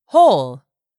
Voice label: "WHOLE", English; 3000 words